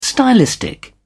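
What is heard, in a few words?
"stylistic", English, uk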